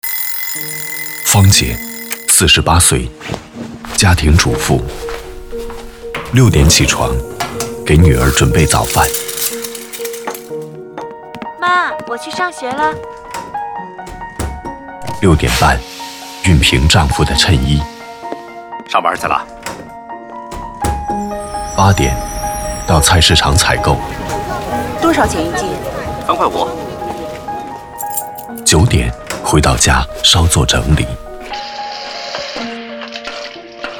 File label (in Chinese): 公益广告关爱女性; 央广; 她的梦想